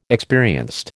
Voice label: "experienced", American